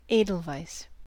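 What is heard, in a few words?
"edelweiss", American English; pronunciation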